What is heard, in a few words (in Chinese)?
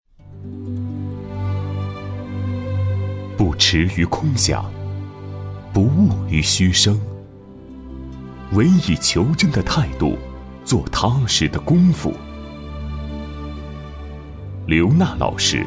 男 人物 磁性走心 介绍